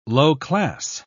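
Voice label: "low-class", British English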